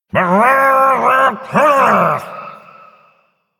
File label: Male Murloc Attack; zh